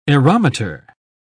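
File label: "aerometer", English, uk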